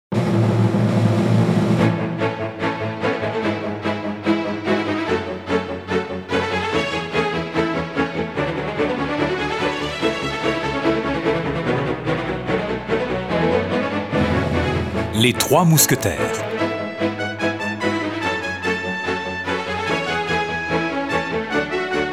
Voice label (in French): fiction radio; Les 3 Mousquetaires